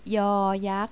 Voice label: "yak", Thai